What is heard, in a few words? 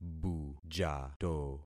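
"bujato", concatenated syllables; Affixing experiment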